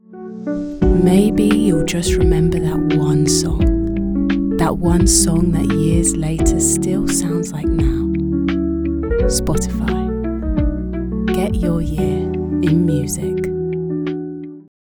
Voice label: female English commercial

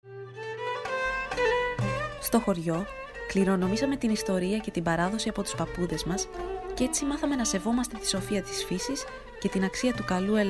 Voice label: female greek olive oil commercial

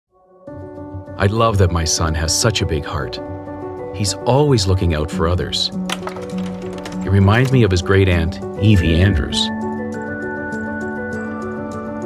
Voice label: male neutral north american accent commercial; english canadian; ancestry

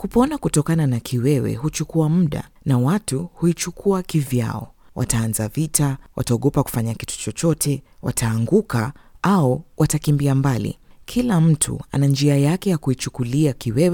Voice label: female african kenyan swahili explainer

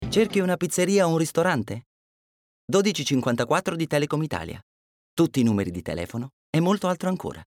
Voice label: male italian telecom commercial

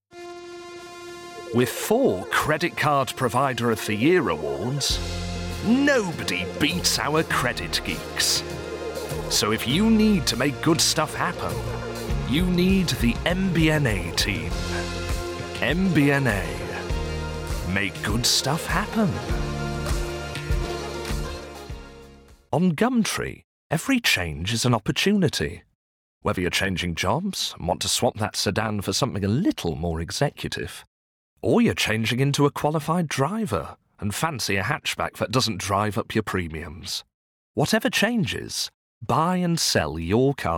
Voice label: male english commercial compilation; english northern accents

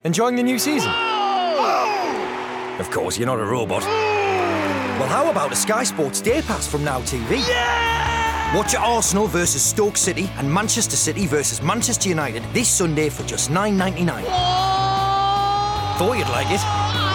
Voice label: male english geordie accent commercial; english northern accents; sky

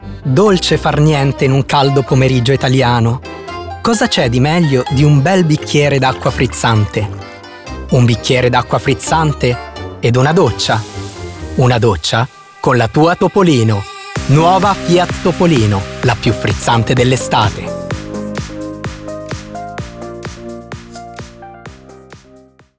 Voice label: male italian commercial; fiat topolino

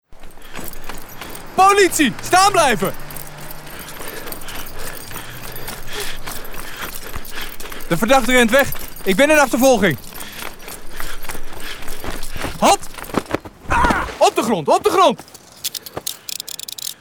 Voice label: male dutch police officer character

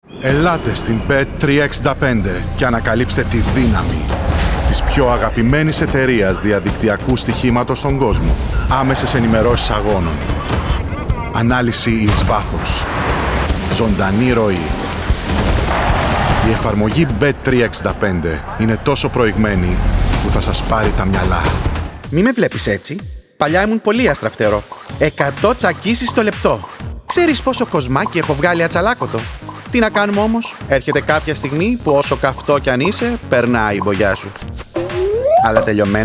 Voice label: male greek commercial

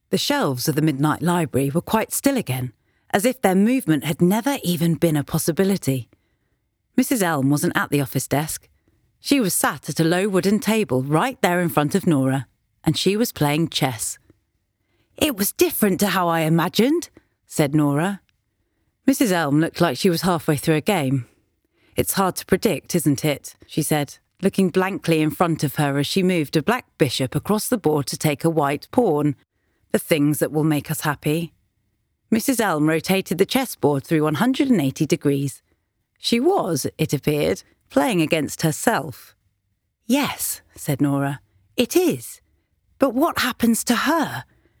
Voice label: female australian and english narration; the midnight library

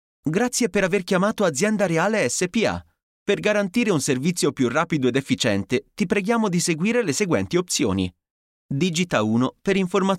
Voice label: male italian ivr; voices

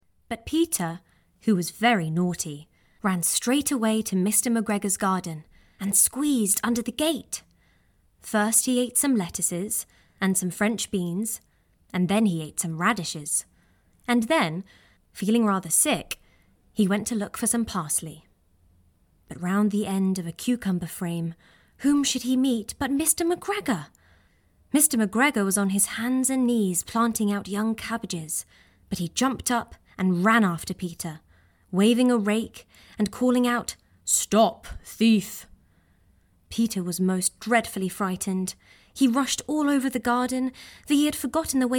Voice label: female English children's book narration; english southern accents

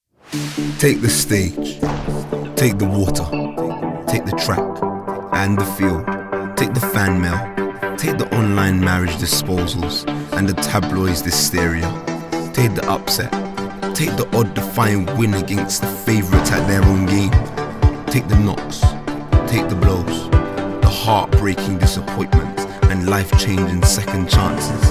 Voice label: male english sports commercial; english southern accents

